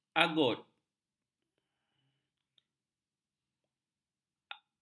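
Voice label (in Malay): "agut", Malay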